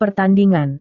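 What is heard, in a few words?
"pertandingan", Indonesian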